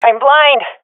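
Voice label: blinded, swat female; vo; Counter Strike Model Pack; CS:GO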